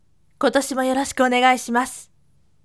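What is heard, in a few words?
voice, firm; kotoshimo yorosiku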